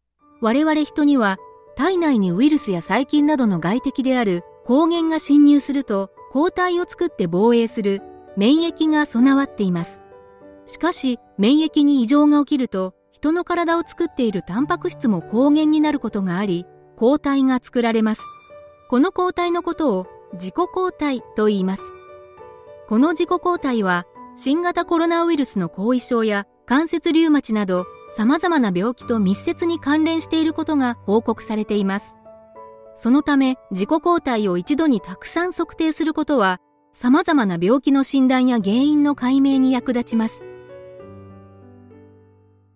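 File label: Japanese, general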